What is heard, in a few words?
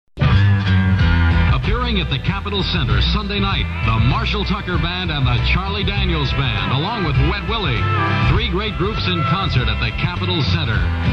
spot; cellar door concerts